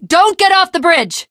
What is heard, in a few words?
jumping off bridge, teengirl; Left 4 Dead 2; Source Engine; video games